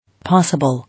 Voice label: "Possible", English

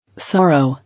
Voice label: "Sorrow", English

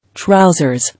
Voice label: "Trousers", English

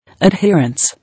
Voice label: "Adherence", English

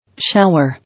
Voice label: "Shower", English

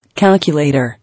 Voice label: "Calculator", English

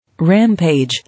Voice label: "Rampage", English

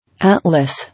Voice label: "Atlas", English

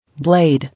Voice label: "Blade", English

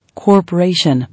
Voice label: "Corporation", English

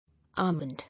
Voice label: "Almond", English

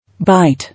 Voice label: "Bite", English

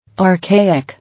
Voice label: "Archaic", English

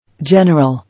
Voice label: "General", English

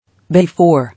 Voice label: "Before", English

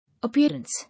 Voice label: "Appearance", English

